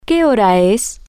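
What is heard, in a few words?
Spanish, female; what Time Is It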